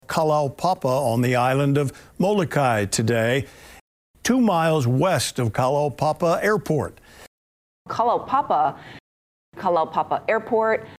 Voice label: KALAUPAPA, pronunciation, Hawaii